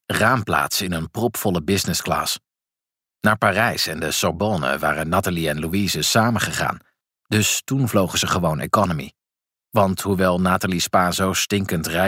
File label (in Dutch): Luisterboek, Stemdemo; Snoeihard; Jens Lapidus